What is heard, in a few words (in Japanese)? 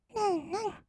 "るんるん", kawaii voice; ASMR; 色々なワンショット